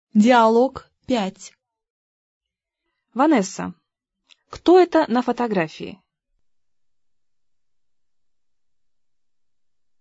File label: dialog, russian